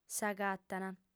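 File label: "s'agatant", Sardinian female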